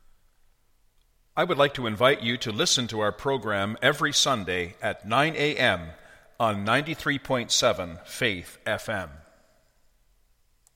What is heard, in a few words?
promo; 937 Faith FM